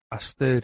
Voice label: "aster" Greek